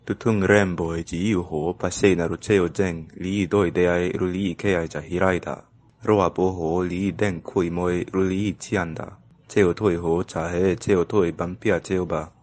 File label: Toaq